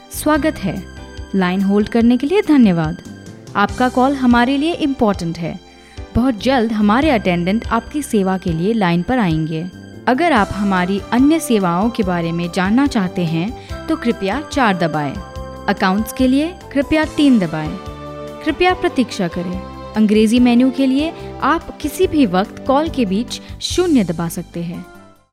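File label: Hindi IVR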